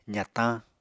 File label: "zatañ", Apatani